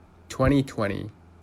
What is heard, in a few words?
"2020", pronunciation